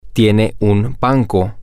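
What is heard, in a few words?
she has a bank, Spanish, male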